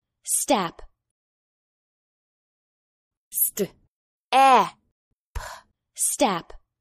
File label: "step", English